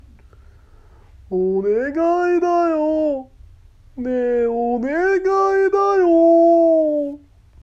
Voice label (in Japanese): おねがいだよ 男性